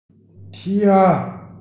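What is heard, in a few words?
"tian2", Mandarin Chinese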